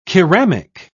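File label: "keramic", British English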